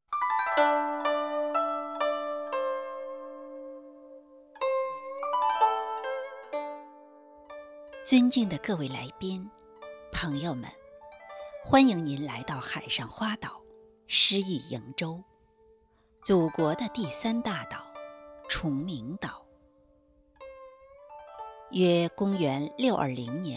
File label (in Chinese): 文旅介绍音频制作; 上海第十届花博会